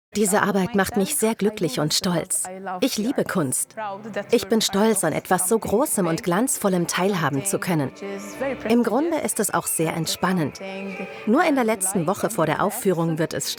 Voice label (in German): Overvoice, schmunzelnd; Traumorte Malta Saengerin; 3 Sat